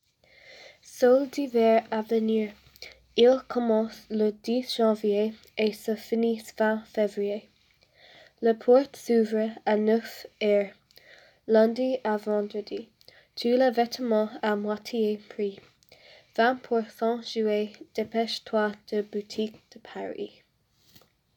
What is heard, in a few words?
French Radio Ad; Boutique de Paris